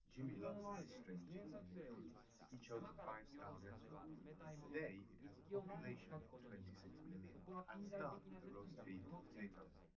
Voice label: male liverec 5cm sim; SASSEC07

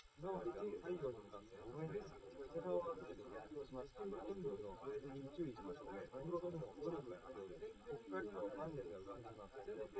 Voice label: male live rec 250ms 1m sim; SiSEC11; underdetermined

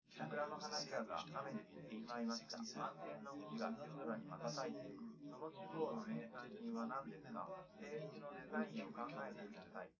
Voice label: male4 liverec 250ms 5cm sim

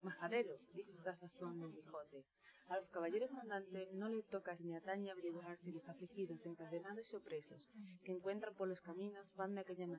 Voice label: female inst

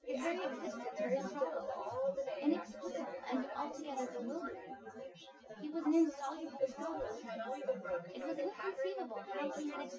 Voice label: female; SiSEC08; SiSEC underdetermined

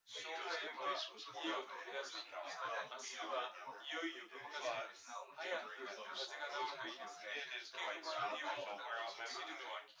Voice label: male synthconv

